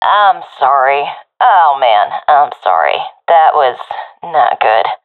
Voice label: sorry, professional fem; vo; Counter Strike Model Pack; CS:GO

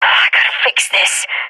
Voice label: scared; Counter Strike Model Pack; CS:GO

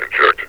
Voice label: inject, metropolice vo; Half Life Alyx

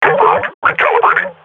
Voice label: combat idle, grunt; Half Life Model Pack

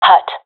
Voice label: loc hut, professional fem; vo; agents; Source Model Packs; Counter Strike Model Pack; CS2

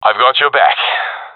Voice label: covering friend, professional; vo; Counter Strike Model Pack; CS:GO

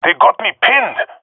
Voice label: pinned, jungle male epic; Source Model Packs; Counter Strike Model Pack; CS2

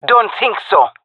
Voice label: disagree, jungle fem; vo; agents; Source Model Packs; Counter Strike Model Pack; CS2; jungle fem epic

